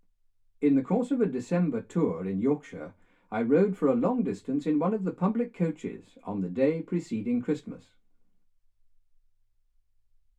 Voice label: male speech english